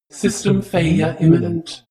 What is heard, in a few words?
spaceship female status report